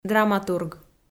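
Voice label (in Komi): "драматург", Komi, female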